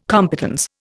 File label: "competence", Indian